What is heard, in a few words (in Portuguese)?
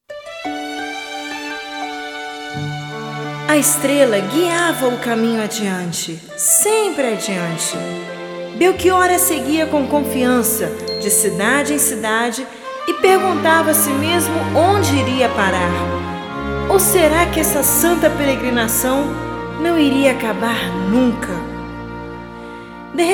Interlúdio I + Narração